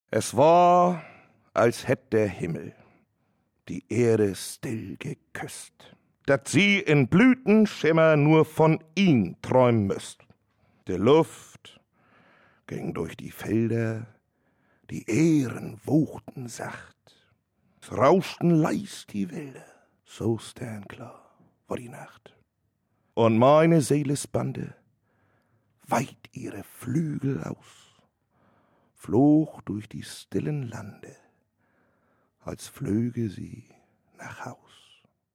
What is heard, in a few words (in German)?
dialekt